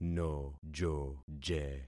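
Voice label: "nojoje", concatenated syllables; Affixing experiment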